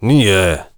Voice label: warrior conversation emotion disagree

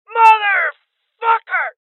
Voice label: Male Young; rebel cops 2019 gamerip; Heavy Losses

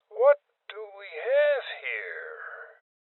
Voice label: Voices OpenSafe Male Old; rebel cops 2019

